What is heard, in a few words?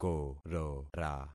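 "korora", concatenated syllables; Affixing experiment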